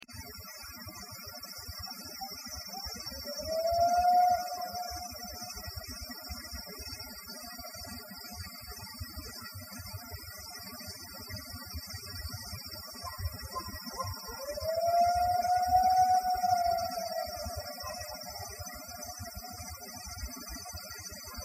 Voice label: vocals filtered